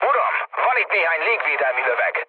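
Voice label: Hungarian damaged aa mood low, speech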